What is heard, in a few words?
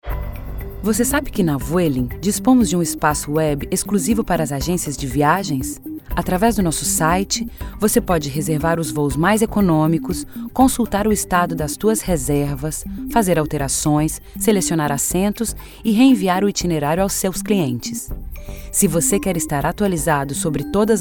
female brazilian comercial; ideasonora